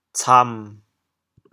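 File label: "cam7", Teochew, chaoyang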